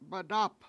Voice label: "madAp", washo; abalone shell